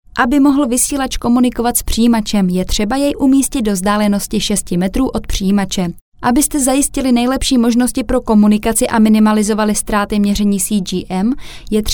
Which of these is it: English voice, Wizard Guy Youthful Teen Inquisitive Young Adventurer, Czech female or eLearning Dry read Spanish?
Czech female